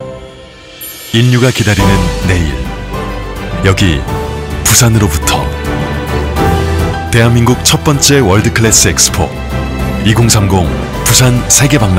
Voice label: 나레이션 희망찬 힘있는; 삼성전자

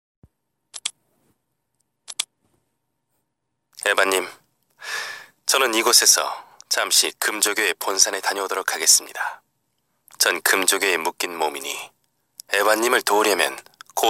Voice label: 게임 진중한 시크한 30대; 세븐나이츠

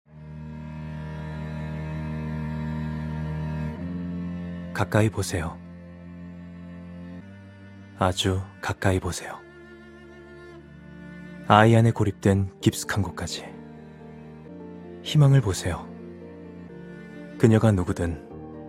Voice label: 멘트 진중한 진지한

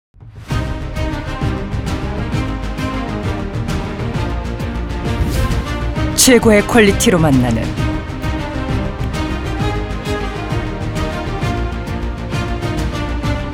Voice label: 시크한 30대; 검은사막

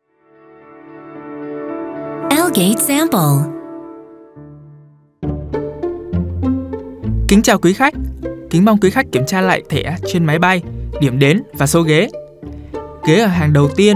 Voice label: 베트남 남 밝은톤 호치민 억양

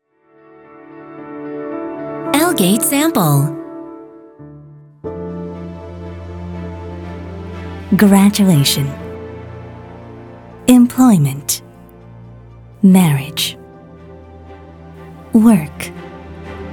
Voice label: 영어 여 차분한 톤

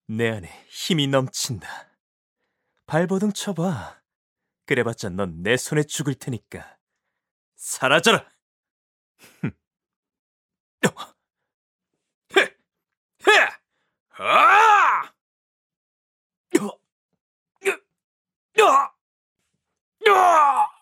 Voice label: 카리스마 비열한

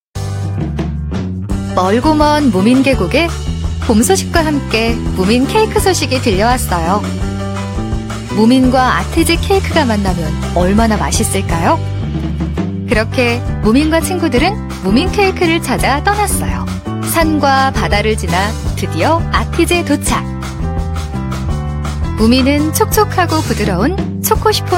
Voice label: 동화구연 느낌 상냥함 밝은 따뜻한; 아티제와무민

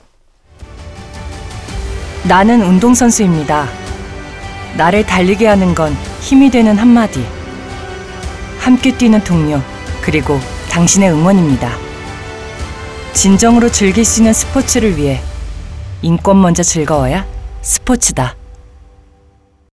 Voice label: 배우 일반인 30대; 국가인권위원회

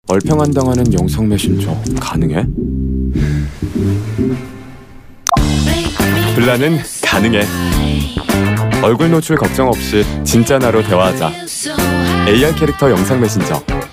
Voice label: 광고; 블라(Blah)

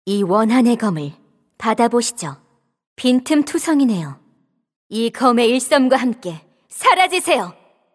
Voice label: 게임 여검사 쿨한 시크한 20대

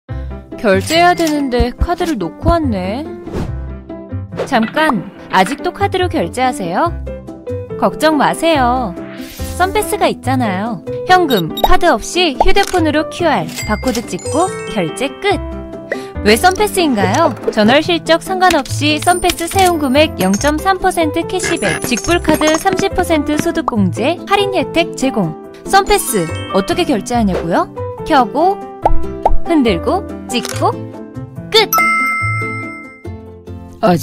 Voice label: 밝고 친근한 목소리 은행 앱

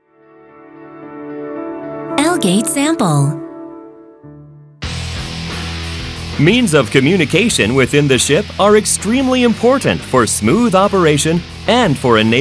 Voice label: 영어 남 밝은 톤

